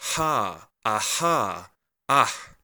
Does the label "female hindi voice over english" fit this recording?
No